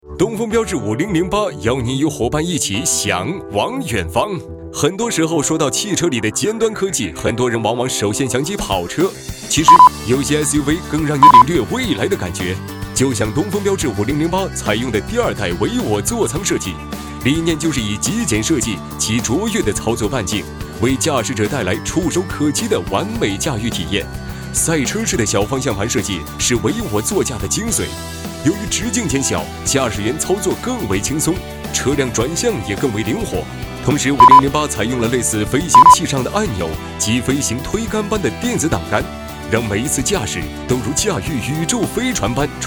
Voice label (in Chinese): 专题 青春活力; 【专题】青春活力嗨聊